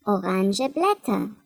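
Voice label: "orange leaves", German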